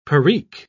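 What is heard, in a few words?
"perique", British English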